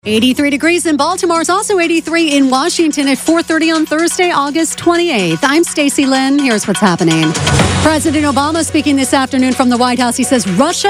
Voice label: News